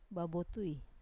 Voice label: "babuotui", mud, Wamesa